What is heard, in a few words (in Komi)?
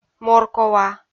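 "моркова", Komi, female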